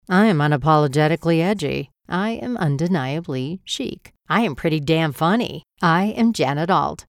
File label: women